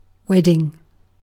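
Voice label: "wedding", British English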